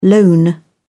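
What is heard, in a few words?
"loan", British English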